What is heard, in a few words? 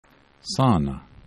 "sana", esperanto